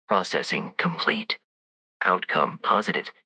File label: happy, speech; fertig classic games